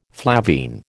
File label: "flavine", American